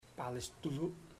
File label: I am hot, Chamacoco; Puerto Diana